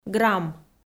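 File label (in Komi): "грамм", Komi, female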